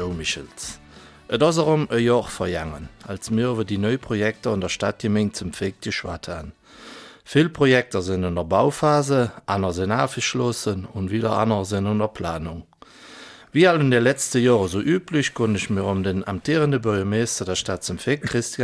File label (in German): Eifeler Mundart; Neues aus der Gemeinde St Vith